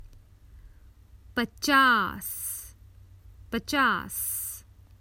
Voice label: Fifty, Common Hindi Phrases for travel